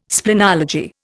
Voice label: "splenology", Indian

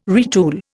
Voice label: "retool", Indian

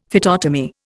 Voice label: "phytotomy", Indian; Dictionary

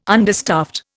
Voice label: "understaffed", Indian

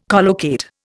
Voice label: "collocate", Indian

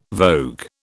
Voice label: "vogue", British

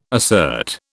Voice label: "assert", British

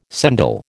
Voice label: "sendal", American

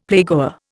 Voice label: "playgoer", Indian; Dictionary

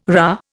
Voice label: "rah", Indian

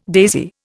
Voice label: "daisy", Indian

